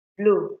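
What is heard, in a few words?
"blow", Nigerian Pidgin, female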